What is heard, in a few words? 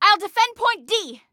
defend, Female; Female 02